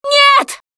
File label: grabbed by smoker, russian; Producer